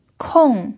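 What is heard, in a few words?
"kong" fourth tone, pinyin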